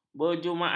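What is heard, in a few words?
"berjumaat", Malay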